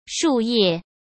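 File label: "树叶", Mandarin Chinese; chinese flashcards; hsk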